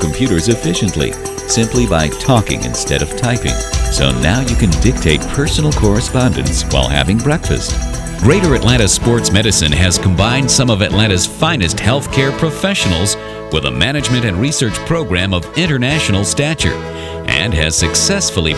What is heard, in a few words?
narrations